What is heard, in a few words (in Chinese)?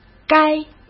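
"该", Mandarin Chinese; chinese-flashcards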